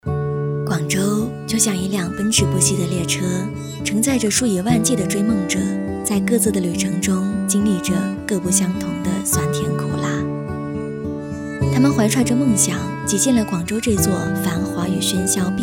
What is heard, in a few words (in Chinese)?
广告走心文案配音